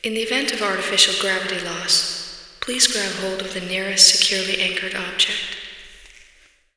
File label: announce; allegiance